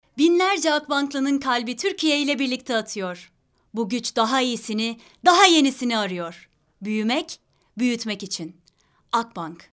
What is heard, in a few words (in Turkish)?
reklam